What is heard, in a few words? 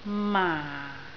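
"maa4", Cantonese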